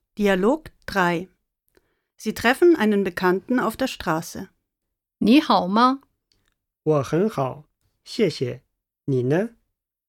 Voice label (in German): Dialog